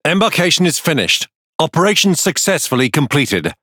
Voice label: Pirate; finish success; World Of Warships

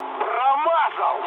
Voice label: bomb failure, mood med, speech ru; War Thunder

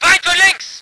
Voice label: voice ger vehicle

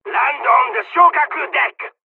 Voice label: landing, serbian; War Thunder

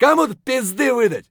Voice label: mutter; Escape from Tarkov

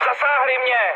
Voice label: aircraft damaged, speech cze, mood high; War Thunder

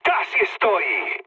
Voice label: near waypoint, mood med, speech; War Thunder

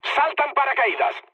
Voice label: bailout, mood low, speech; War Thunder

